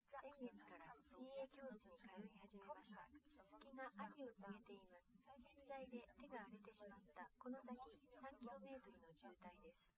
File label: female liverec 130ms 5cm sim